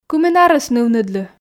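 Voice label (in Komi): кымын арӧс нывныдлы, Komi, female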